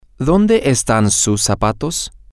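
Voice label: where are your shoes, Spanish, male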